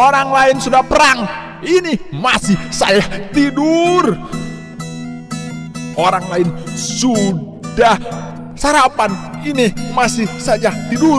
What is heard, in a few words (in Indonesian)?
nada suara alarm berisik woyy bangun